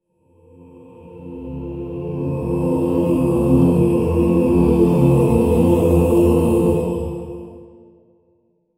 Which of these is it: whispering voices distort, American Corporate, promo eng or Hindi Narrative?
whispering voices distort